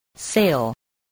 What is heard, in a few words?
"sale", English spelling